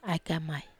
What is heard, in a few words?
"ai kamai", wamesa